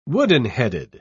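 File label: "wooden-headed", British English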